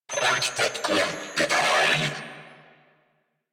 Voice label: Attack Grineer Male; New War; Narmer